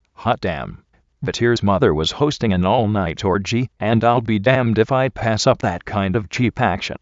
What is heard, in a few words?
voice synth